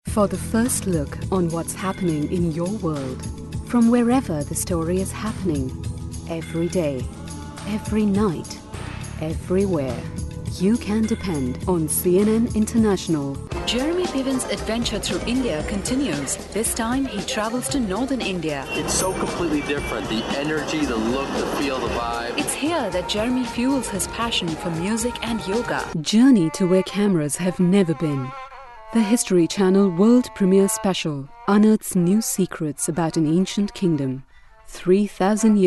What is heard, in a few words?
promos